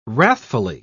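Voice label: "wrathfully", English, British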